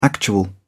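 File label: "actual", English, British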